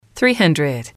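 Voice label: "three hundred", English, female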